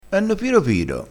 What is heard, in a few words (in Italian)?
"piro piro", crespinese